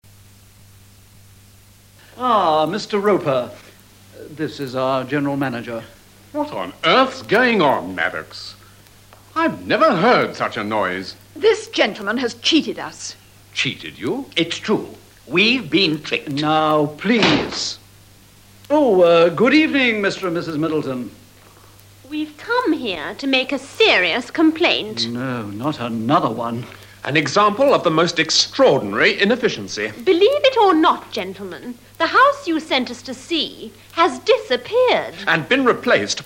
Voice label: dialog